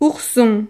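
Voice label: "ourson", French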